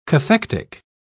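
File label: "cathectic", British English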